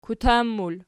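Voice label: "kutan", plural, Archi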